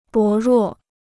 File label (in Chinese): "薄弱", Chinese